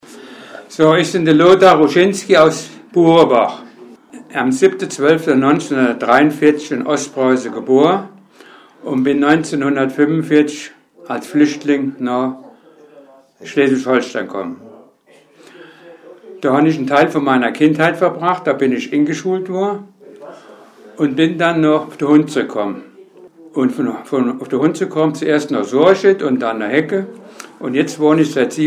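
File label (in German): vorstellung, Hunsrück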